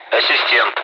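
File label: Speech, Russian, air traffic control; Callsign; Assistent; DCS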